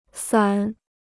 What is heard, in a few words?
"伞", Chinese